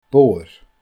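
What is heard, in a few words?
"bodhar", Scottish Gaelic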